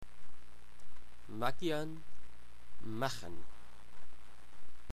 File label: "Macian", englisc